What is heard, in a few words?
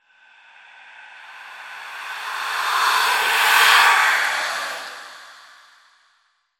Whispers Tense; Silent Hill Downpour